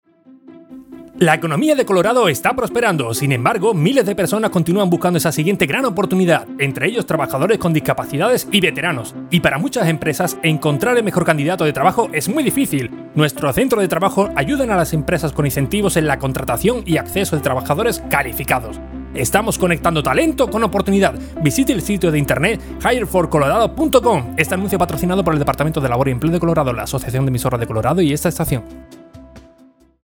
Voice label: Spanish